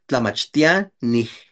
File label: "tlamaxtianij", Náhuatl; maestros; teachers